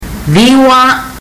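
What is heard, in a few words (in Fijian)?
"ciwa", Fijian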